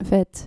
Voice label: "vet", dutch